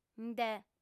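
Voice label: "nde", female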